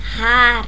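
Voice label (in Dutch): "haar", Dutch; tdegames; ktuberling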